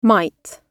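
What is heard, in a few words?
"might", British English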